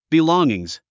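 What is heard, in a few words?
"belongings", English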